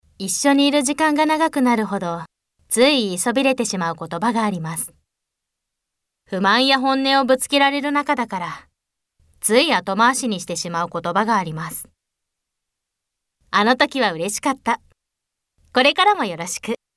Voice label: narration